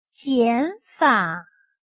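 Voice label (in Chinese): "减法" Mandarin Chinese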